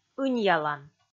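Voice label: "ыньялан", Komi, female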